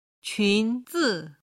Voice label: "qun2zi5", Chinese

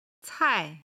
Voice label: "cai", Chinese

